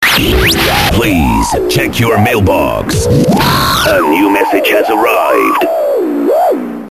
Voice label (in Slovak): sms hlásky en